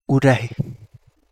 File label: Kapingamarangi; pacific longnose parrotfish